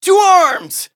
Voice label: battle en; cookie run